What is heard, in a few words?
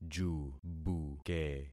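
"jubuke", concatenated syllables; Affixing experiment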